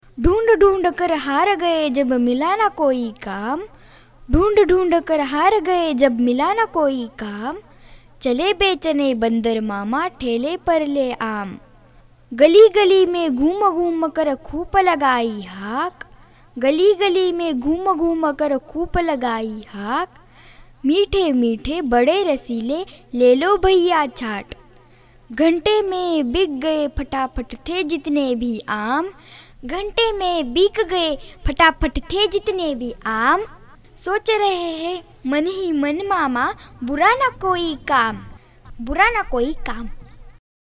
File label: Voice HINDI Poem